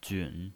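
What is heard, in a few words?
"jun", third tone, pinyin voice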